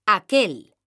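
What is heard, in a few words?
"aquel", Spanish, female; mekanta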